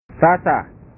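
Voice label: "rasa", Indonesian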